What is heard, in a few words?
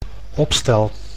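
"opstel", Dutch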